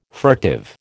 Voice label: "furtive", American

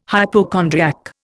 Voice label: "hypochondriac", Indian

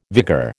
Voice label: "vigour", American

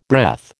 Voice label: "breath", American

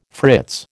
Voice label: "fritz", American

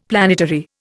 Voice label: "planetary", Indian; Dictionary

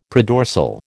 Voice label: "predorsal", American

